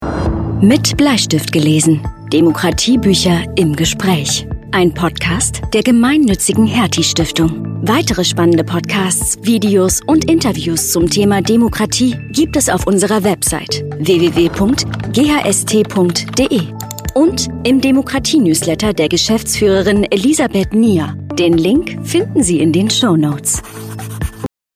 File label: Voiceover Podcast Intro